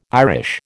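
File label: "Irish", American English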